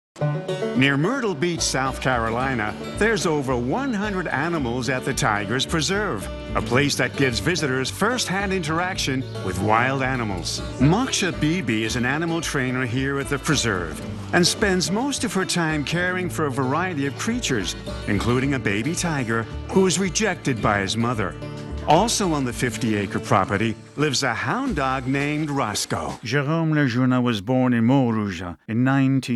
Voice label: Documentary Voice